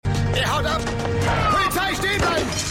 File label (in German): Polizist, Stimmproben